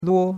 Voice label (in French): "luo1", chinois; Cours de Chinois